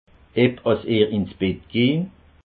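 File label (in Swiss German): "bettgehn", Alsatian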